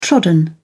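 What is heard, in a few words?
"trodden", British English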